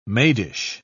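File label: "maidish", British English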